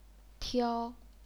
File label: "tiao1", chinese pinyin